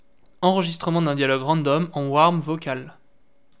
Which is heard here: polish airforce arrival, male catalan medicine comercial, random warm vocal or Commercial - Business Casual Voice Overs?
random warm vocal